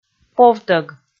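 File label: "повтӧг", Komi, female; kpv audio dict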